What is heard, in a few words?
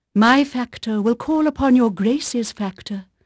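English female, voice; Pirates Of The Caribbean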